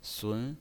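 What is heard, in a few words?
"sun", Mandarin Chinese pinyin, second tone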